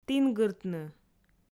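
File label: "тінгыртны", Komi, female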